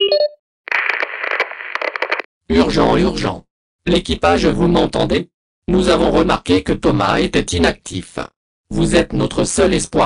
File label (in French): Message Vocal; Escape Kit